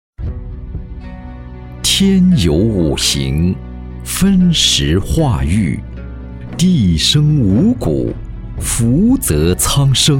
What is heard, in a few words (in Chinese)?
雄心配音